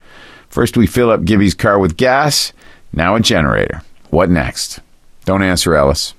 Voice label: elevator, survivor voice gambler; Left 4 Dead 2